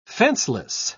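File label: "fenceless", English, British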